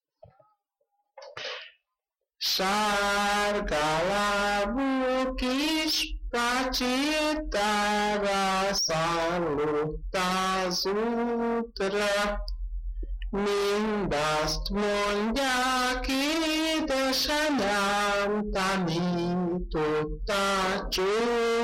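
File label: dialect collection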